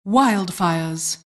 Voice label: weather alert